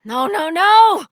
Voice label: Fail Interjections, Adult, en; Warframe; Duviri; Conservation

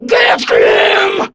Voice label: Player Spotted, Grineer Female; Warframe; Battle Barks

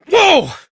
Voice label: Horse Stop Adult; Duviri

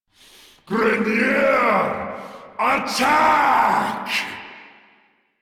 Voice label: Cover Me, Battle Barks

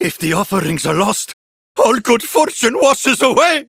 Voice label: Villagers Ostron Male Older en

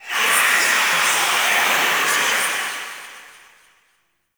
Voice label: Undead Whispers; Warframe; Dante

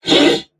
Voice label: Threatened Crewmen; Warframe; Corpus Crewmen; Crewman E; Dialog Barks Battle

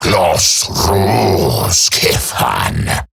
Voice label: Player Spotted Male; en; Dialog; Barks; Battle; Grineer; Eximus

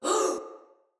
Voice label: Attack, Female; Sinecure Soldier Female B

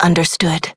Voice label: Operator Voice, en; Warframe; New War

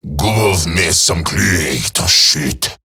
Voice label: Patrol Eximus Grineer Male; Battle Barks

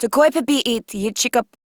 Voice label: Patrol Female; Warframe; Modular Corpus Female; Battle Barks; en

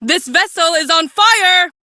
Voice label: Female, en; Crew See Fire; Warframe; Railjack Crew; Cephalon Suda

